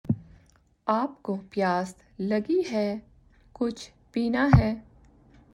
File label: Urdu